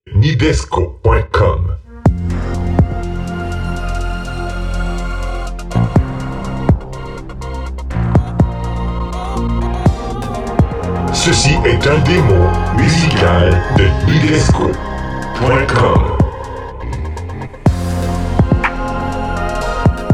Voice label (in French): annonce radio